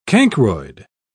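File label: "cancroid", British English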